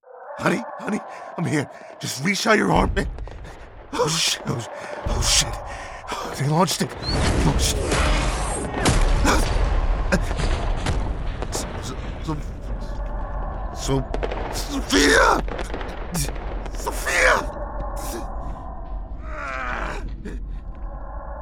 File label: character